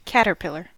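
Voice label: "caterpillar", US English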